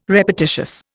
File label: "repetitious", Indian